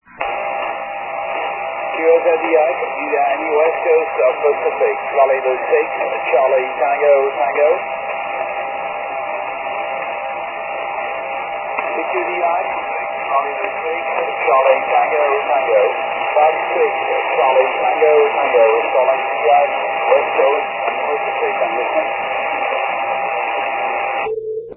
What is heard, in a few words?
80m SSB